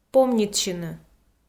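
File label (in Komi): "помнитсьыны", Komi, female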